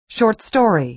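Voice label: "short story", American English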